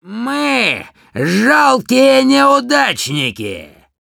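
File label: Demoman jeers, russian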